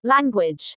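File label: "language", English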